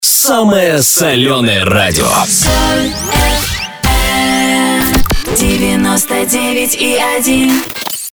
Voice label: ДЖИНГЛ; Самое Соленое Радио, Соль Эф Эм, 99.1

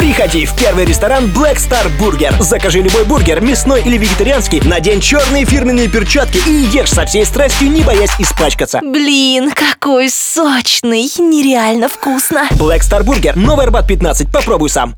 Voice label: Дикторы Burger; Blackstar Burger

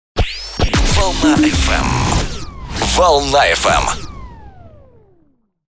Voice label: джинглы для DJ радио шоу

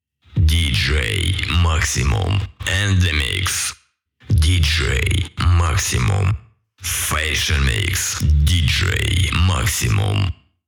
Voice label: Джингл

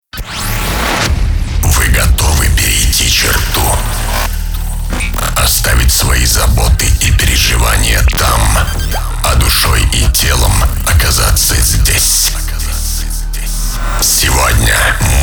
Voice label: Интро для диджея, Диктор

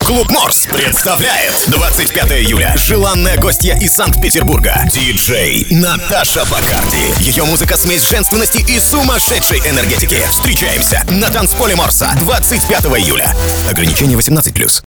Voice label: АУДИО АФИША

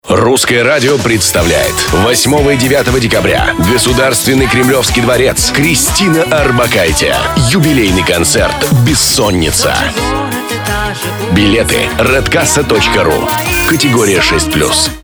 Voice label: Диктор, Аудиореклама

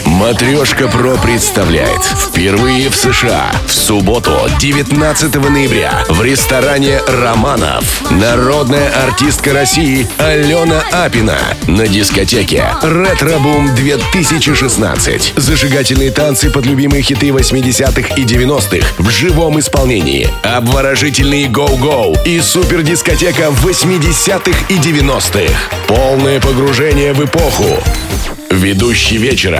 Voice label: Диктор

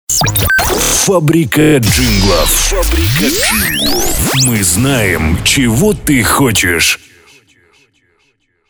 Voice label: Мужской голос

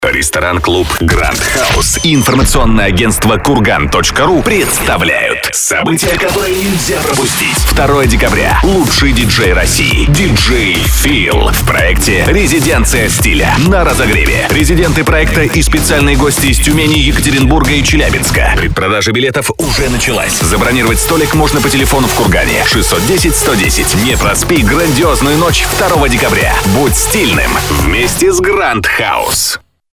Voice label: Диктор, Аудиоролик

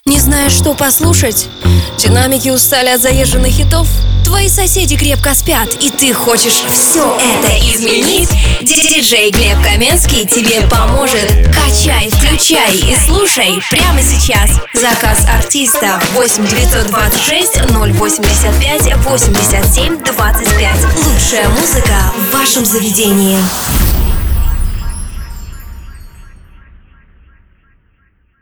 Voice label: РЕКЛАМНЫЙ РОЛИК

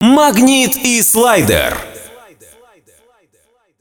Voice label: Войс дроп - Мужской голос

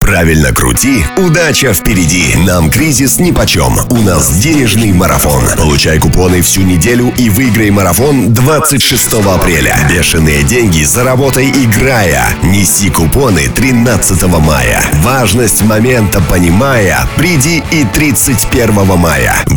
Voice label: РЕКЛАМНЫЙ РОЛИК; Империя - Денежный Марафон

